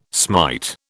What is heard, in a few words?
"smite", British